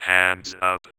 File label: Vocoder Words; Vengeance Samples